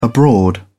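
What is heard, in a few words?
"abroad", English, British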